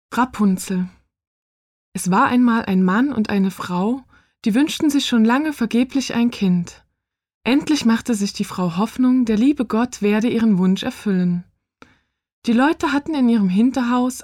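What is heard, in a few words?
german female narration; voice demos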